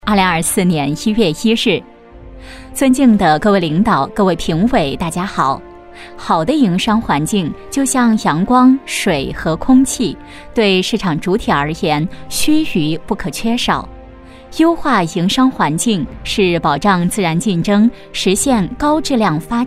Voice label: 女 专题 稍慢语速; 营商环境

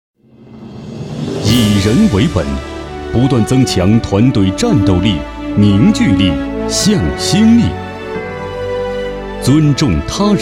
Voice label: 男 宣传片 磁性大气; 国测

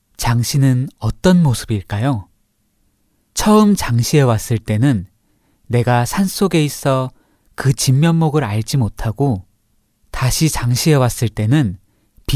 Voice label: 城市宣传片 大气舒缓; 江西城市宣传片

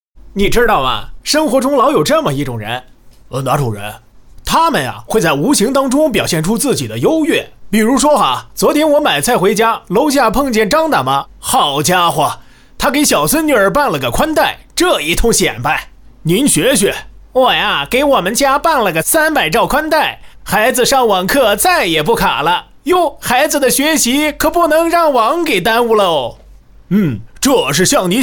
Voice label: 男 戏曲 相声 对口相声; 显摆

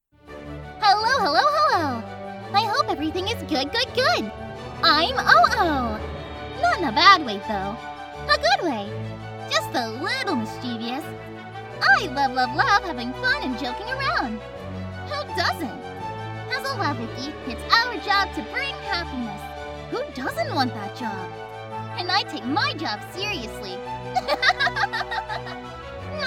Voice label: 外籍英语女-角色可爱欢快

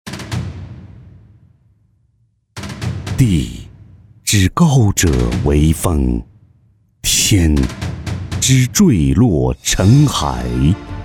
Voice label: 男 广告 低沉内敛砥砺 地产

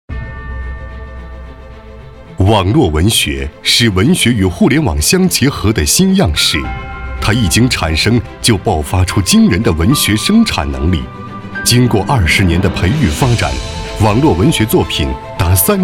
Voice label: 男 颁奖 浑厚品质; 2021中国网络文学影响力榜

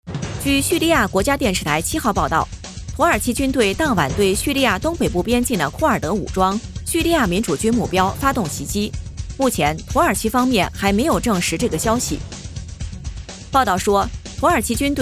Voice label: 新闻 军事

